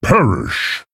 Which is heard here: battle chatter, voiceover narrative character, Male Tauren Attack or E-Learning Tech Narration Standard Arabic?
Male Tauren Attack